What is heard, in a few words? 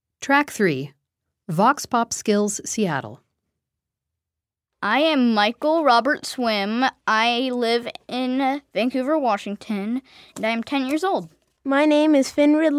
Voxpop; Seattle; Blind Youth Audio Project 2016